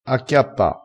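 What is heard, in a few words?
"acchiappa", Italian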